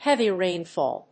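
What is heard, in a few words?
"heavy rainfall", English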